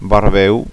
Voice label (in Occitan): "barbeu", Gascon